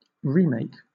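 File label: "remake", British English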